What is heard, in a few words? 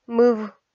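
"мыв", Komi, female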